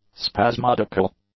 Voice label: "spasmodical", english; adjectives